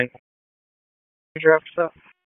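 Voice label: Wake Forest Police Dispatch, p25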